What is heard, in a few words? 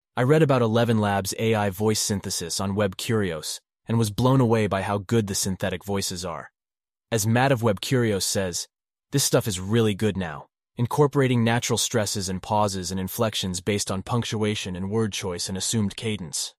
synthesized audio